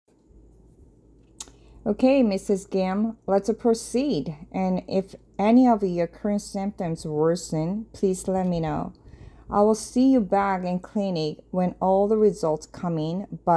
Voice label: Medical Certified Oral Korean Practice